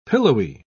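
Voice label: "pillowy", British English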